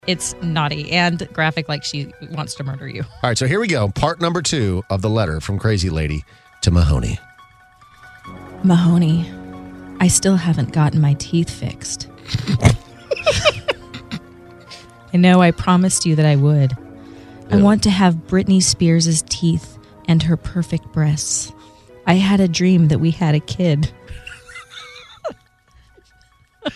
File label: Narration; Listener Letter